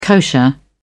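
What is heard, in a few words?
"kosher", British English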